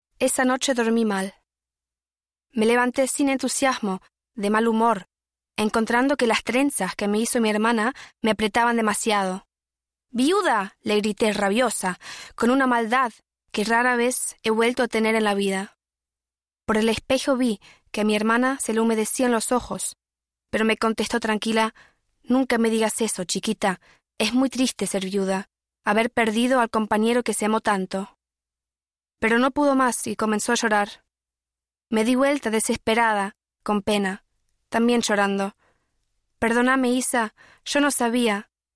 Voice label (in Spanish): spanisch; LOFT VOICES